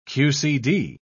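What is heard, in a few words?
"qcd", British English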